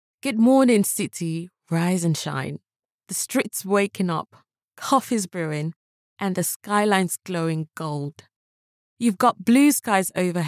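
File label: African English, female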